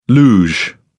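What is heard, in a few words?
"luge", British English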